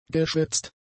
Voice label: "geschwätzt", German; adjectives

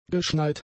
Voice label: "geschneit", German; adjectives

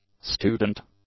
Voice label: "student", English; adjectives

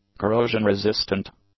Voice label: "corrosion-resistant", English; adjectives

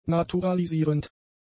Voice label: "naturalisierend", German; adjectives

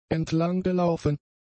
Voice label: "entlanggelaufen", German; adjectives

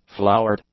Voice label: "flowered", english; adjectives

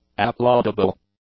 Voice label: "applaudable", English; adjectives

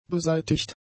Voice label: "beseitigt", german; adjectives

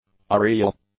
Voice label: "areal", English; adjectives

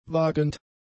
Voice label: "wagend", German; adjectives

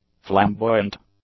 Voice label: "flamboyant", english; adjectives

